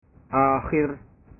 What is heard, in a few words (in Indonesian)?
"akhir", bahasa